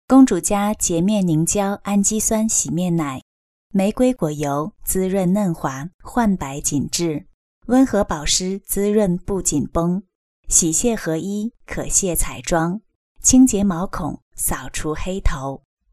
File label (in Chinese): 美妆试音